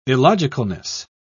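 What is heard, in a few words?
"illogicalness", British English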